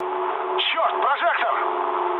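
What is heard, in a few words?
searchlite, mood med, Russian; War Thunder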